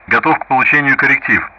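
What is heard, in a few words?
Speech Sound Russian; DCS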